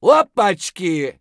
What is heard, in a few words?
scav mutter, voice; Escape from Tarkov; scav2